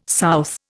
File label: "south", Indian